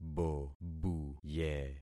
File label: "bobuye", concat syllables; Affixing experiment